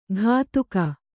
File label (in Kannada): "ಘಾತುಕ", kannada